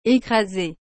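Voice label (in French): "ecrasee", French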